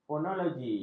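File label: "fonologi", Malay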